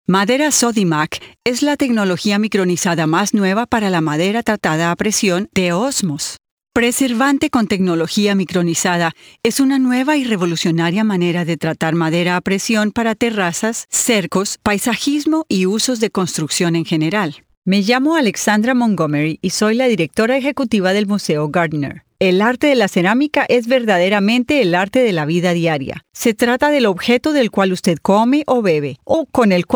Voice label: Spanish Narration just voice